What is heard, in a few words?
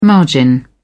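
"margin", English, British English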